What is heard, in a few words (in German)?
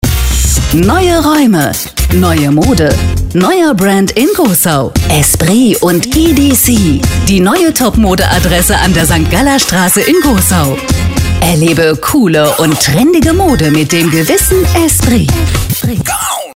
Werbung dominant